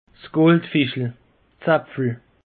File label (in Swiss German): "goldfischel", alsace; peche